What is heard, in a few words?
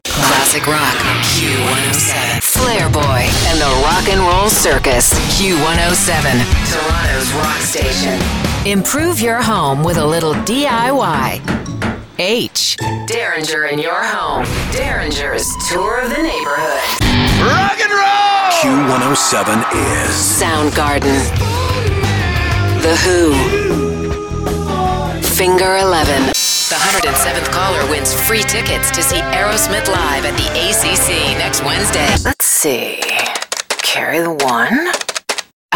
radio imaging female